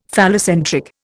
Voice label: "phallocentric", Indian; Dictionary